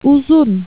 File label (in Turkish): "Uzun", Turkish